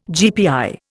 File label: "GPI", Indian